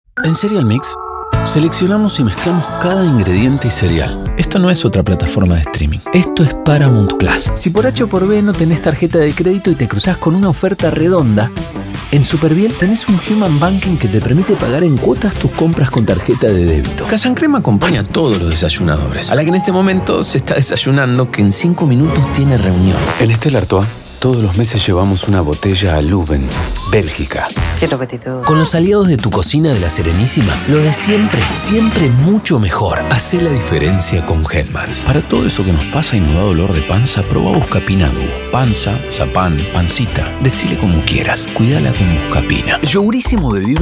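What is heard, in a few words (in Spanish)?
Locutor argentino; spanish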